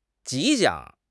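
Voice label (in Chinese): "吉祥", Mandarin Chinese; HSK6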